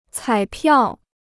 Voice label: "彩票", Chinese

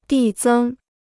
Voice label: "递增", Chinese

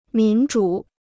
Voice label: "民主", Chinese

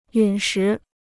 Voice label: "陨石", Chinese

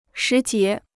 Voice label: "时节", Chinese

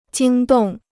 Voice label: "惊动", Chinese

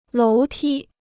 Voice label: "楼梯", Chinese

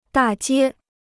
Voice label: "大街", Chinese

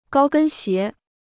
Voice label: "高跟鞋", Chinese

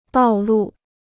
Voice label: "道路", Chinese

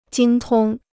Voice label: "精通", Chinese

